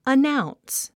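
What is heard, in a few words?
"announce", English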